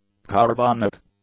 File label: "carbonic", English; adjectives